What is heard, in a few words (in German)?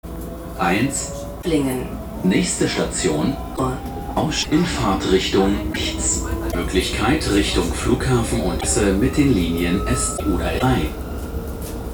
Lückenhafte Durchsage